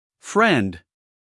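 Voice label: "friend", American English, male; everyone-can-use-english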